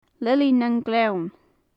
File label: "lili nan gleann", gaelic; lily of the valley; Faclan Nàdair